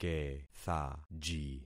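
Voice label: "kethaji", concatenated syllables; Affixing experiment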